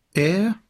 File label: "air"